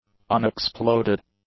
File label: "unexploded", english; adjectives